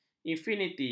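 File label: "infiniti", Malay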